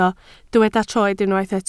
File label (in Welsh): "troed", Welsh